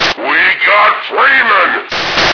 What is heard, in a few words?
intro grunt; svencoop